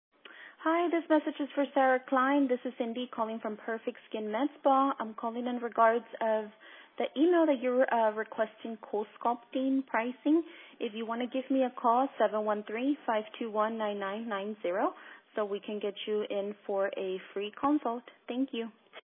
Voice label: voicemail